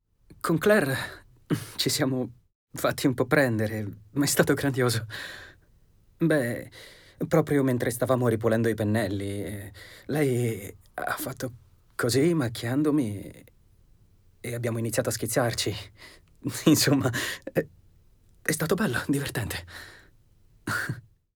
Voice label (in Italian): doppiaggio; insicuro